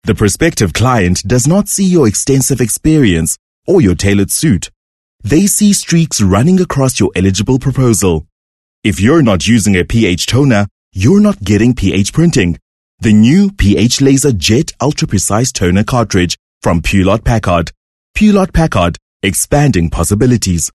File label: English Conversational